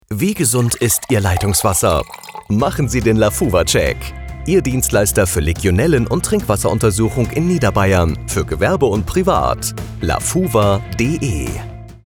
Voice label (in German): Funkspot; LAFUWA GmbH